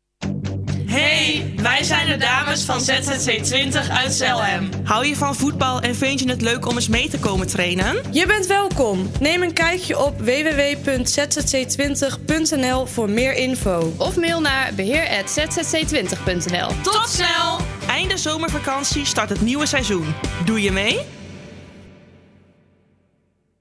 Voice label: Commercial Dames lang; op de radio